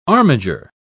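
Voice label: "armiger", British English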